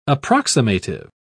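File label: "approximative", British English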